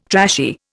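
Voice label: "trashy", Indian English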